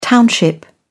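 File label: "township", British English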